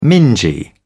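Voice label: "mingy", British English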